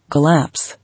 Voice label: "Collapse", English